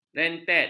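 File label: "rentet", Malay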